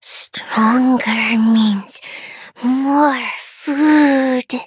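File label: level up, English; Cookie Run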